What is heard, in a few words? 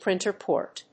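"printer port", English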